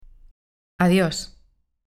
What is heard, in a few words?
"adios", pron